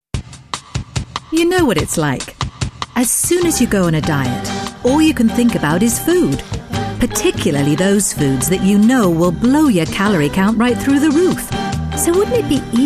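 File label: Commercial English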